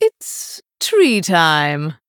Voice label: Female Night Elf